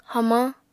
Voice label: "hamañ", Apatani

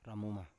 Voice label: "ramuma", Biak

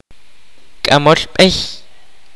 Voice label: kaqchikel; chief

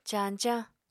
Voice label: "cañcañ", Apatani

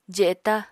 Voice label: "jeta", Apatani

